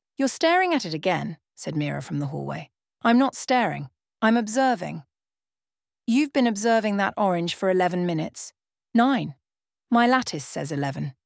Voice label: dialogue sample; audiobook-studio